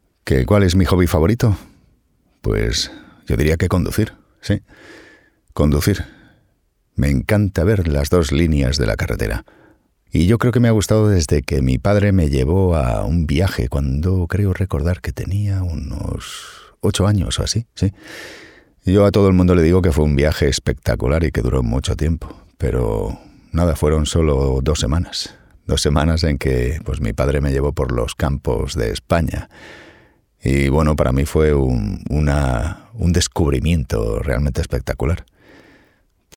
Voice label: Natural Speak, Talking To A Friend, deep, trustworthy, confident Male Voice